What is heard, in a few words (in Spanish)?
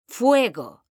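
"fuego", Spanish, female; mekanta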